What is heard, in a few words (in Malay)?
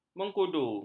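"mengkudu", Malay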